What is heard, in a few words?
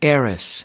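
"heiress heir", American English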